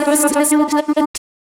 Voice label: VOICE FX, Drum Bass, 160; eJay

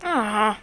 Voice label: gal sad; Classic Cribbage 1.0

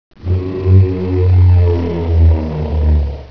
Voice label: zombie

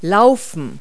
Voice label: "run", verb; Multi-Lingual Talking Picture Dictionary

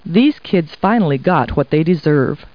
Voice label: people, kid

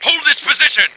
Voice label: hold, Male Voice; Ut; utdemo3dfx; On Fire

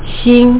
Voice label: star, Mandarin; WordMatch

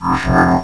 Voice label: mech voice; Empire Earth

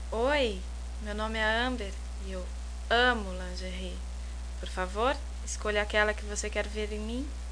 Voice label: girl

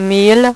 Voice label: "mille", French; HyperSound™2

